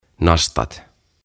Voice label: "Nastat" "Pins"; OTAVA KONSERNI

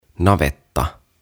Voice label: "Navetta" "Cowshed"

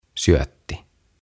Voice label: "Syötti" "Bait"; OTAVA KONSERNI

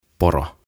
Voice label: "Poro" "Reindeer"

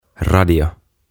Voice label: "Radio" "Radio"; OTAVA KONSERNI

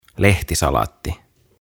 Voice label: "Lehtisalaatti" Lettuce

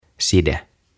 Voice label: "Side" "Bandage"

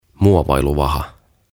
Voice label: "Muovailuvaha" "Modelling Clay"; OTAVA KONSERNI

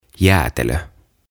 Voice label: "Jäätelö" "Ice Cream"

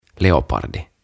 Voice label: "Leopardi" "Leopard"; OTAVA KONSERNI

